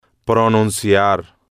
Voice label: "pronunciar" Spanish

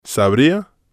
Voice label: sabría, Spanish

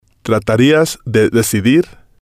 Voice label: tratarías de decidir, Spanish

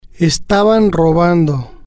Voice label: "estaban robando", Spanish

